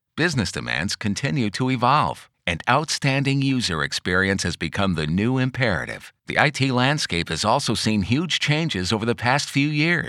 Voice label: explainer